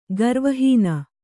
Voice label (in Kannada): "ಗರ್ವಹೀನ", Kannada